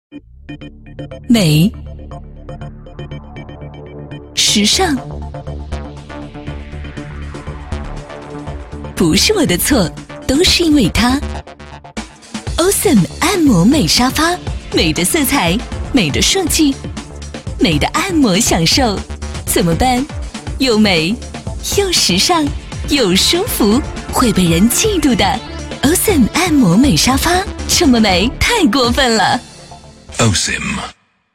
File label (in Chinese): 女 品质科技感; 按摩沙发